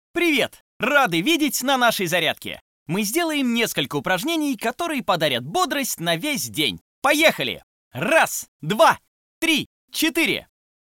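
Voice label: morning exercise, voice casting; актерских голосов для озвучки видео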